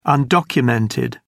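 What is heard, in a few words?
"undocumented", British English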